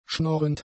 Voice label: "schnorrend", German; adjectives